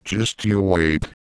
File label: Just you wait, Microsoft Sam